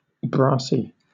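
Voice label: "brassy", British English; pronunciations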